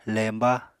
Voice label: "lemba", Apatani